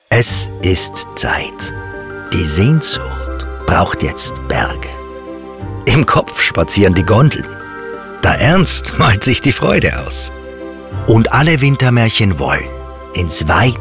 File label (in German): Tourismus Winter Spot; Kitzbühel